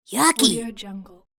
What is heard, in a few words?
female yucky